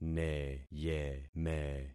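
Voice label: "neyeme", concatenated syllables; Affixing experiment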